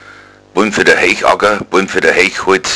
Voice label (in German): pollen, bayerischen Dialekt; Flurnamen